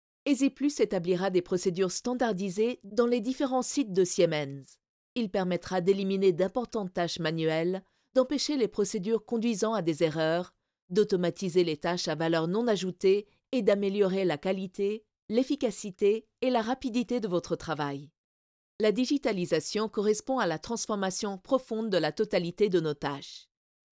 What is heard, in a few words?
e-learning, French, female